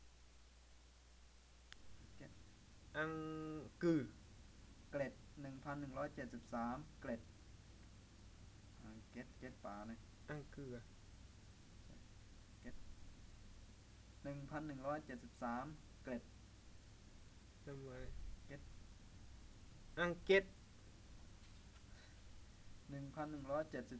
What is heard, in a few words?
Bisu or Misu Wordlist